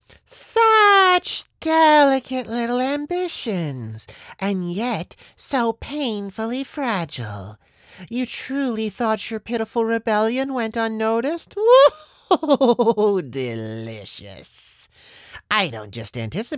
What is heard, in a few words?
Character Slimy Villain